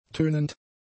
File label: "tönend", German; adjectives